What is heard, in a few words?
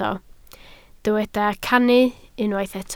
"cannu", Welsh